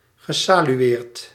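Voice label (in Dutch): "gesalueerd", Dutch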